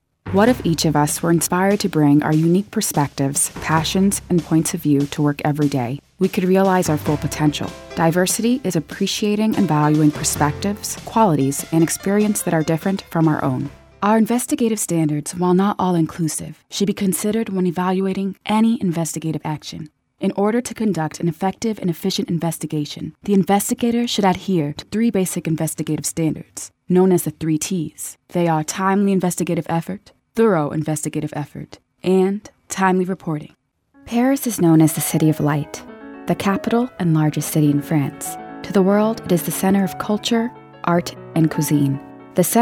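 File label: NARRATION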